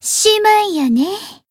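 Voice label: Voice finish, Japanese; hoozuki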